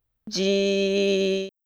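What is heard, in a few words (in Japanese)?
"じ", Japanese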